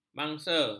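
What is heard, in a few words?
"mangsa", Malay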